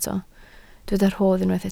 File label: "rhodd", Welsh